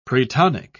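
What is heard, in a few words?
"pretonic", British English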